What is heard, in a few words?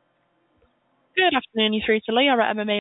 call recording